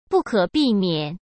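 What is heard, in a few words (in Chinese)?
"不可避免", Mandarin Chinese; chinese-flashcards; hsk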